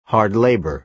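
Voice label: "hard labour", American English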